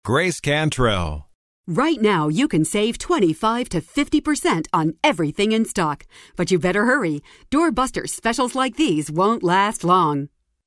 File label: retail announcer